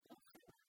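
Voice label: "отсӧгӧн", Komi, female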